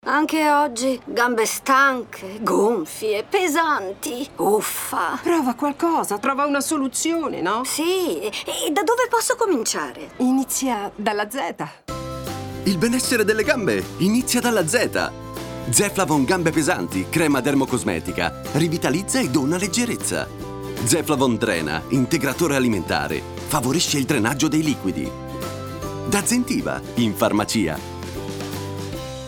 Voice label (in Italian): spot radio; zeflavon drena; gambe pesanti